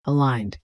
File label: aligned female